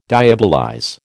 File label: "diabolize", American